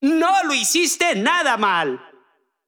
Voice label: Extreme Positive